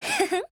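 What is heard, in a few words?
Japanese; ZasM76; MOOD1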